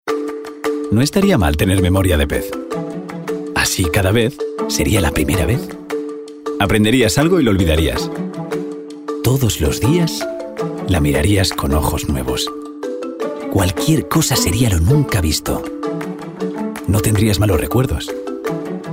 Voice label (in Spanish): Demo locutor